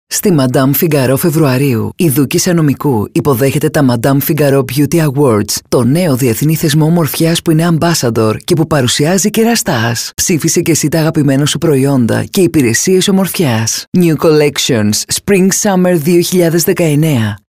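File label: Greek, female, narration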